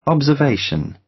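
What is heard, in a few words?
"observation", British English; Time, history; Thời gian, lịch sử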